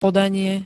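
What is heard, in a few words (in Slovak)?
"podanie", Slovak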